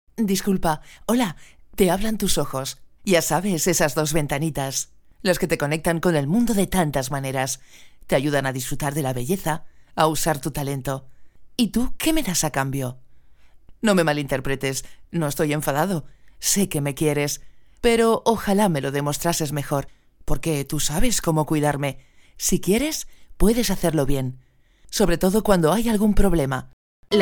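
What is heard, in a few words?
Spanish Spain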